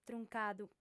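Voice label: "truncadu", female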